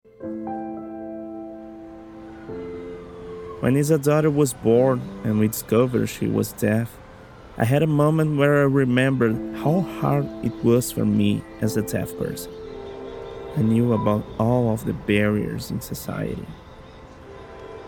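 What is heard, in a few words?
DOCUMENTÁRIO ENGLISH VOICEOVER SINCERO CONFIDENTE NATURAL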